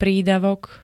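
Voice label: "prídavok", Slovak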